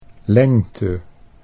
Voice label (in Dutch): "lengte", Dutch